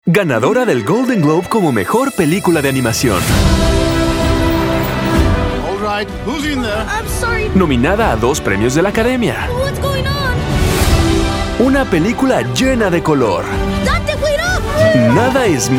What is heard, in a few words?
spanish promos